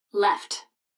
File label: GPS left; Blind Drive